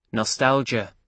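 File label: "nostalgia" English, British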